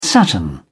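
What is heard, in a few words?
"saturn", British English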